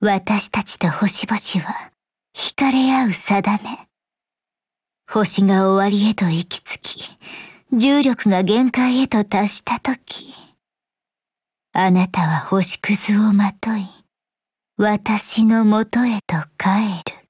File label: VO DIALOGUE; hubble darkstar